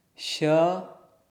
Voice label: "ś", sanskrit pronunciation